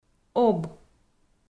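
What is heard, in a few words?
"Об", Komi-Zyrian, female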